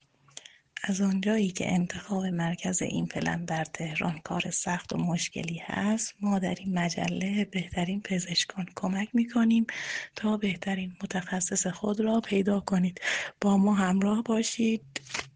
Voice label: voice msg